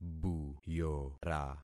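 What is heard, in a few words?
"buyora", concatenated syllables; Affixing experiment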